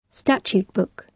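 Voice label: "statute book", British English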